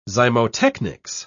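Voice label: "zymotechnics", British English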